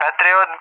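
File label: "Patriot", English, RUSSIA; Allied Flight; AirDefence; DCS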